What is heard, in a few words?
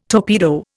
"torpedo", Indian